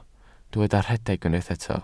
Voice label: "rhedeg", Welsh

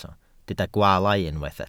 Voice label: "gwaalau", welsh

